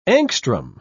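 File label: "angstrom", British English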